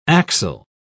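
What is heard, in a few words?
"axile", British English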